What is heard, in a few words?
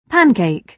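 "pancake", British English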